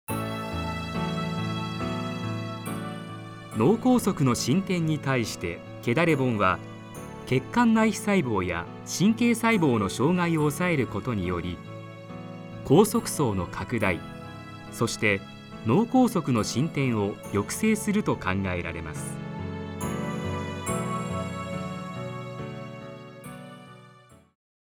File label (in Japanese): 医薬品PV